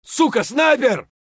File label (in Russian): "suka sniper" scream; tarkov